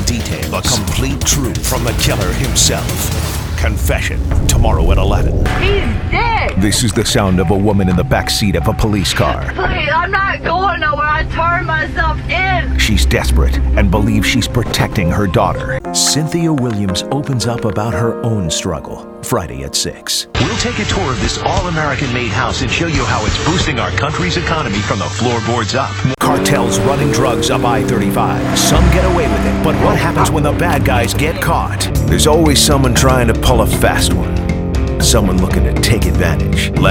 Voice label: tv affiliate men news topicals